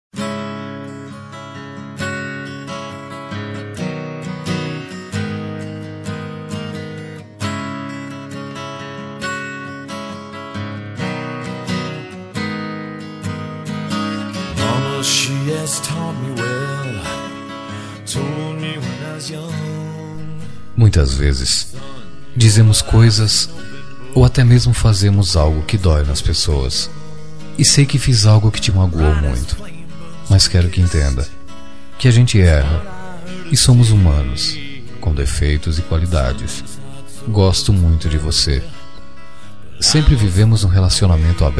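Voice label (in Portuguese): reconciliacao masculino; Telemensagens; ROM RECONCILIACAO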